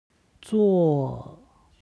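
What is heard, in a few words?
"zuo", Chinese; 做; する; HSK Level One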